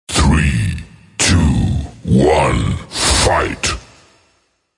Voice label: three two one fight deep voice